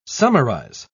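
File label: "summarise", British English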